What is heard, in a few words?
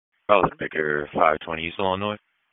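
Police Northeast District Dispatch; Raleigh; p25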